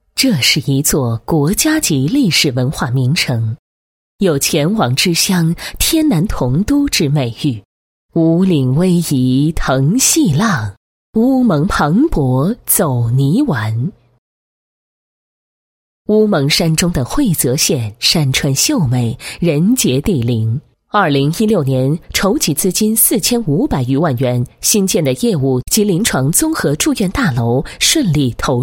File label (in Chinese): 女 医院专题 宣传介绍; 某县妇幼保健院